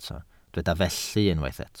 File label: "felly", Welsh